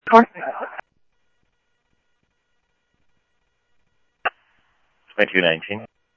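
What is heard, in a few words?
Sheriff West County, scanner audio trunk; ThompsonPeakScot; MCSO - WEST; Sheriff-WestCnty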